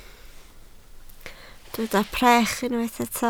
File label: "brech", Welsh